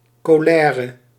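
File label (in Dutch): "colère", Dutch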